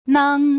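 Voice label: "neng", Putonghua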